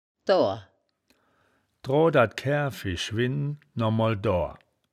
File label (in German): "doar", woerterbuch, Wittlich